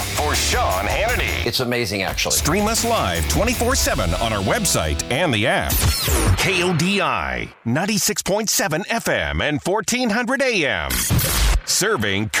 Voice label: News Talk Radio; long version